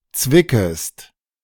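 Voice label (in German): "zwickest", German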